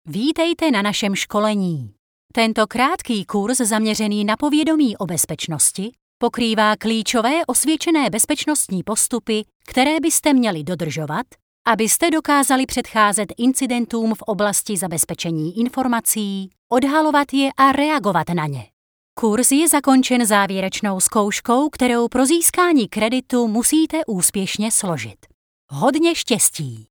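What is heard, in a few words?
cz elearning, Czech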